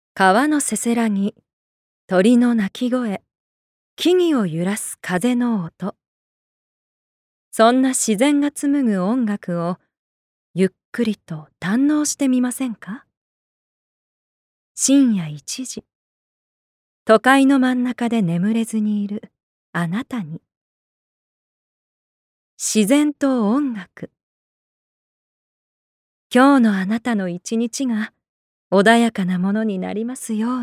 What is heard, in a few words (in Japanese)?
ナレーション